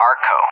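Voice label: "Arco", English, USA; CallSign; DCS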